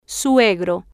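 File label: "suegro", Spanish, female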